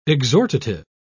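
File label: "exhortative", British English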